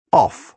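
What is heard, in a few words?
"off", British English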